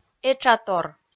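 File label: "этшатор", Komi, female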